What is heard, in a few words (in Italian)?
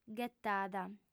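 "ghetada", lingua sarda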